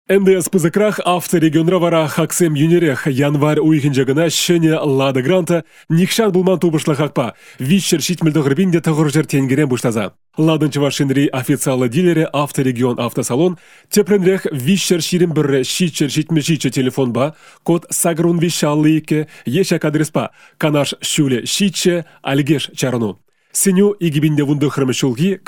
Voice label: Chuvash male

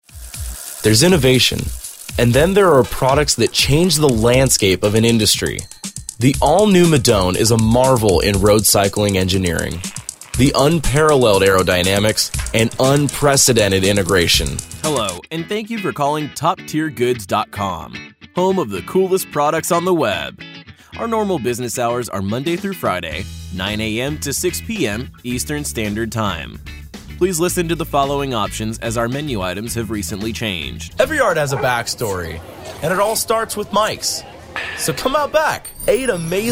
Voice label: English - American

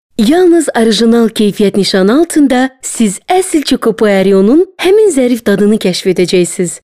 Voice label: Azerbaijan female

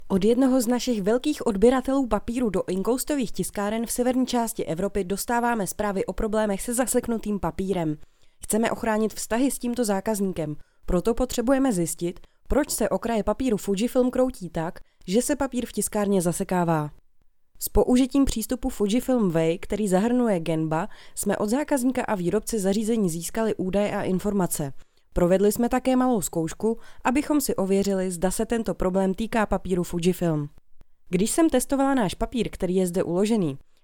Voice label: Czech

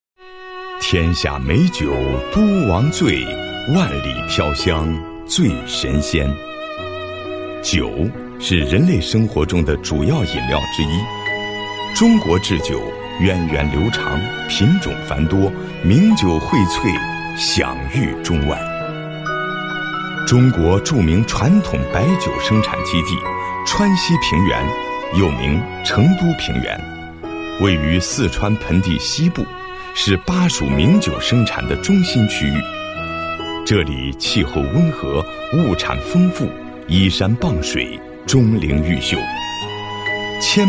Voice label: Chinese - Mandarin

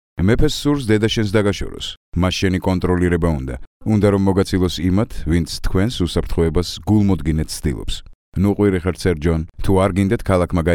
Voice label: Georgian male

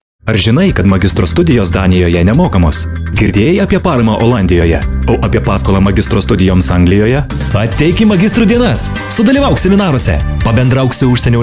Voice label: Lithuanian male

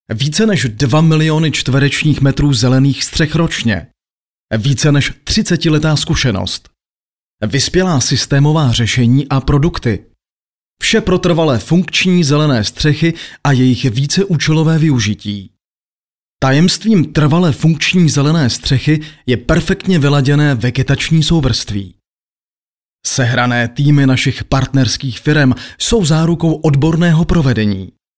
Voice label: Czech male

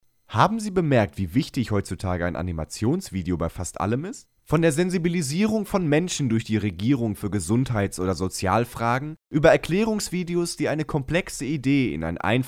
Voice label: German male

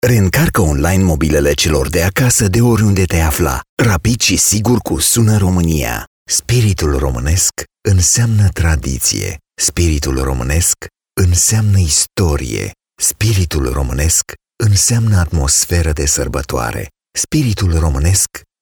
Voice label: Romanian

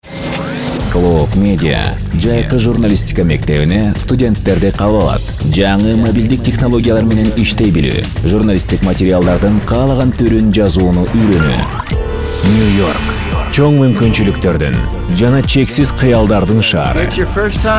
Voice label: Kyrgyz male

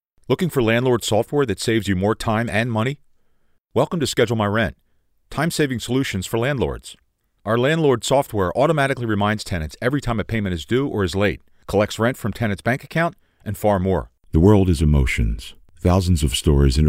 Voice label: English - American

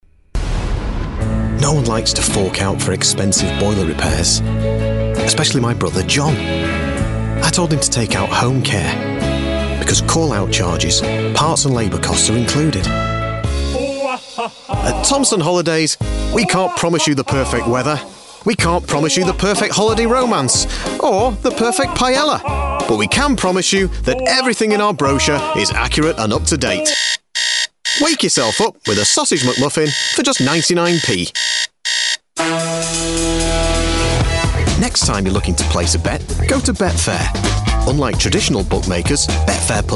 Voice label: English - British

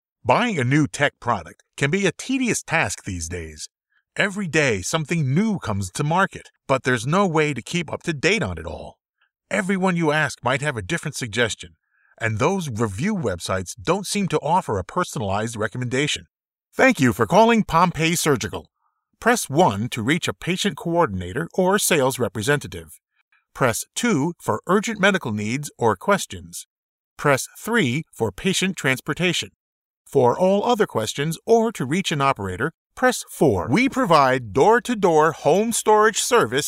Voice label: English - British

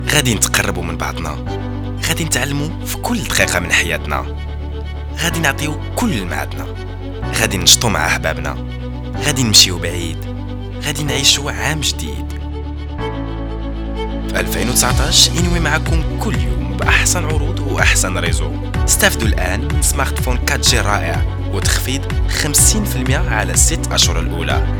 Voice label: Arabic male

